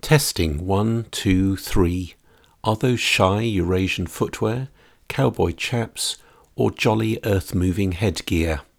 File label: test Blue Yeti cardiod